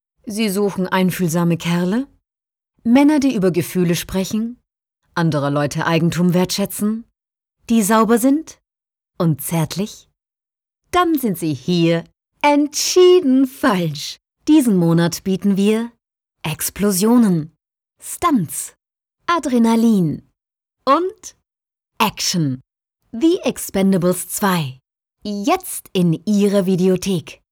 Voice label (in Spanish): narración alemán